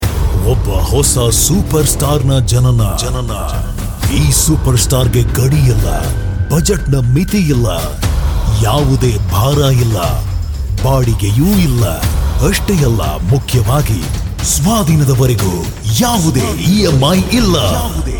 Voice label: Kannada Advertisement